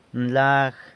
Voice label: "nlag", San Lucas Quiavini; wide